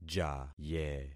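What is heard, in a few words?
"jaye", concatenated syllables; Affixing experiment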